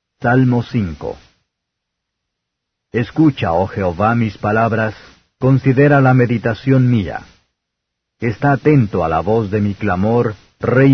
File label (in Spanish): salmos spanish